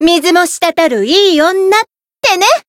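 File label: win, Japanese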